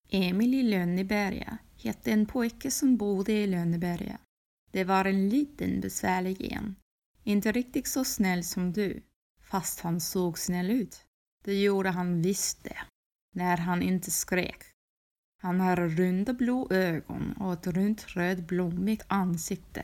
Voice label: Swedish female

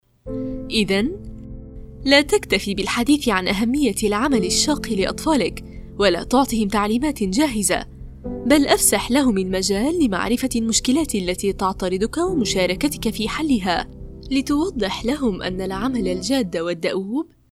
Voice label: Arabic

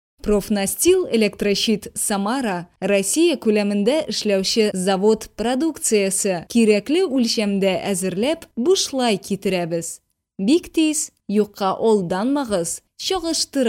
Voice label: Tatarian female

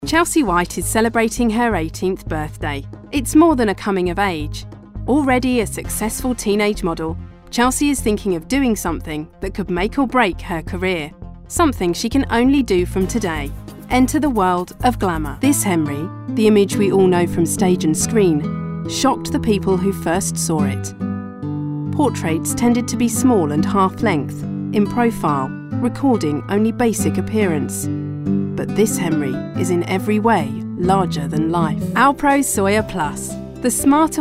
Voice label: English - British

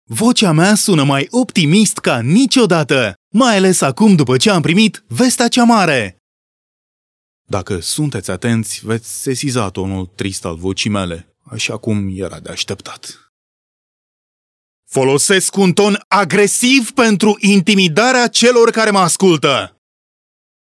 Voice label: Romanian male

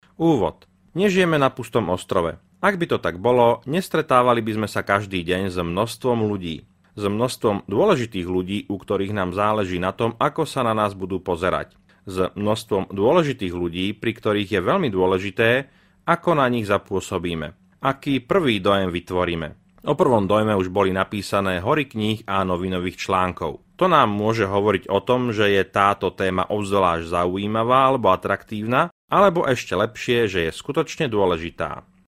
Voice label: Slovak male

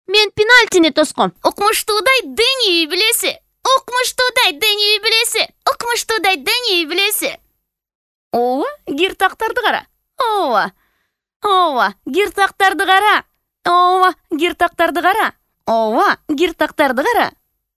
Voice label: Kyrgyz female

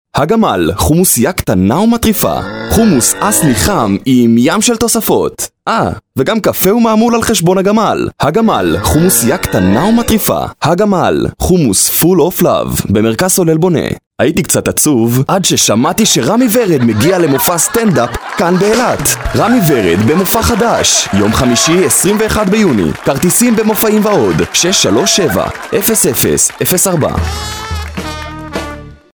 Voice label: Hebrew

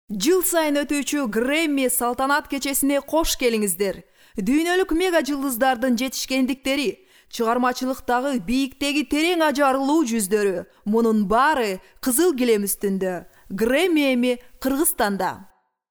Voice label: Kyrgyz female

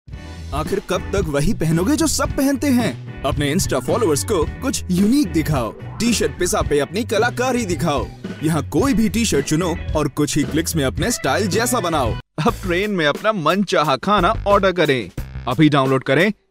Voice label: Hindi male

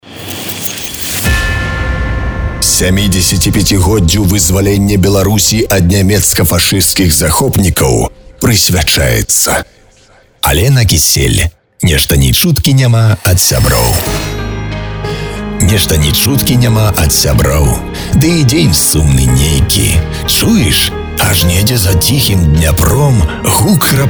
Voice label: Belarusian male

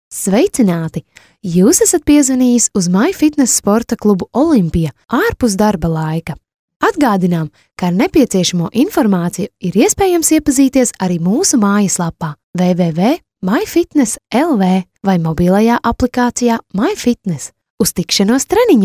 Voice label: Latvian female

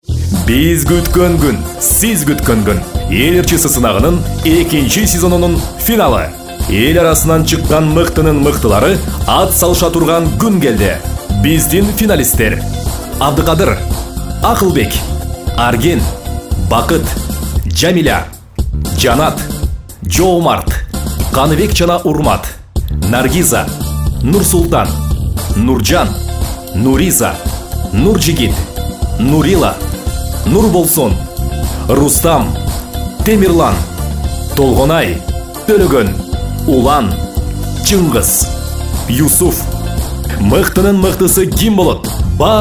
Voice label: Kyrgyz male

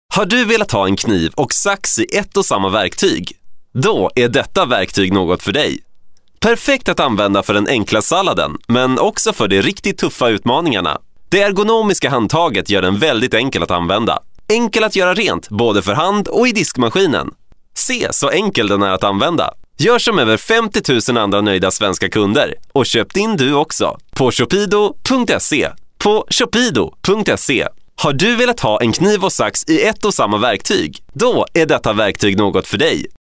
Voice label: Swedish male

